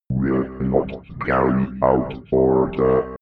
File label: robot voice will not carry out order fx